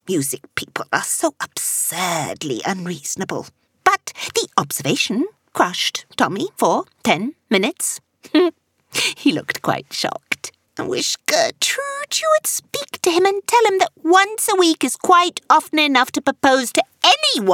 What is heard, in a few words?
Theatrical Eng